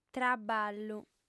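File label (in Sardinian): "traballu", female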